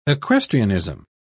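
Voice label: "equestrianism", British English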